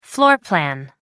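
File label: "floor plan", British English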